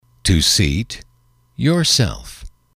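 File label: "to seat yourself", English, male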